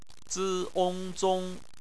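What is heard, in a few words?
"zong", Mandarin Chinese; BPMF spelling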